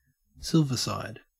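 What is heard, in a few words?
"silverside", Australian English; pronunciations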